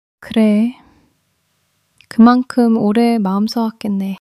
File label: sadness